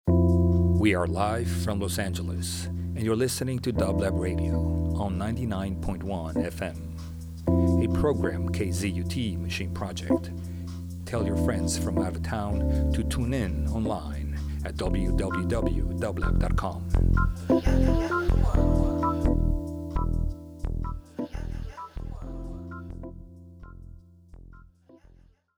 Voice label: fm drop; kzut